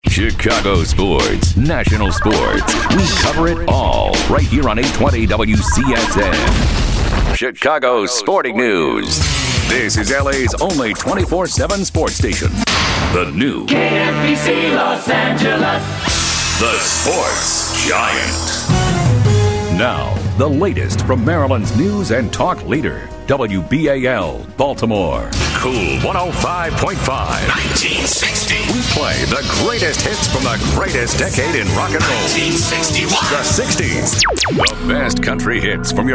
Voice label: Dude Radio; radio imaging